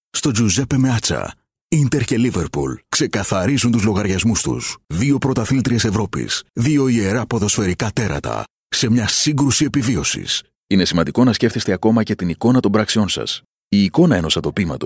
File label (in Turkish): Yunanca Erkek, yunanca seslendirme; erkek sesler